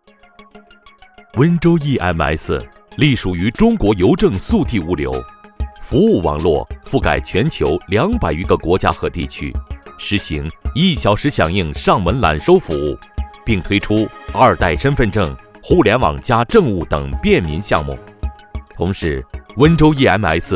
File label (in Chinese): 男 专题 科技类